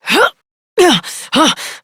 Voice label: Horse Dismount Adult, Dialog; Duviri